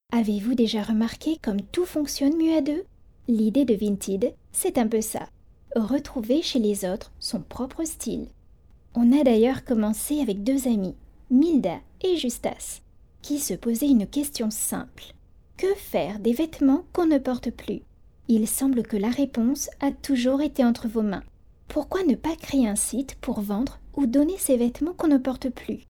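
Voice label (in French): French, female, narration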